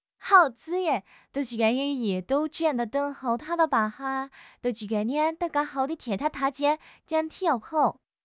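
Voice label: "hou4", Mandarin Chinese; HSK4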